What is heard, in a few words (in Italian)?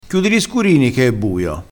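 "scurino", dialettale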